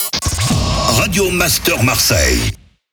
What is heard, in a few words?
Sweeper MEDIUM